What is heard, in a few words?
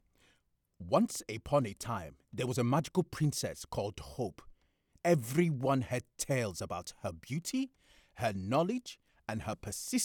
Ghana Accent Logba Language